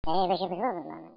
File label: phone voice